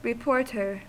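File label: "reporter", American English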